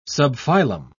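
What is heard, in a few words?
"subphylum", British English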